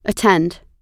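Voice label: "attend" US English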